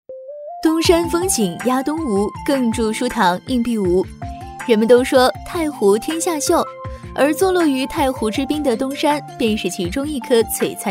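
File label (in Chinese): 女【解说】舒缓熟御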